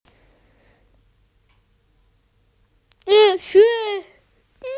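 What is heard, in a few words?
"science", Mandarin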